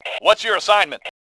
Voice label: bot, male; voice